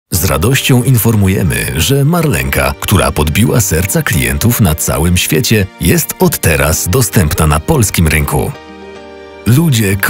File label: polish corporate videos